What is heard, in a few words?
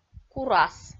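"курас", Komi, female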